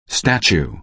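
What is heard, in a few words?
"statue", American English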